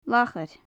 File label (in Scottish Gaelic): "lachair", gaelic; great crested grebe; Faclan Nàdair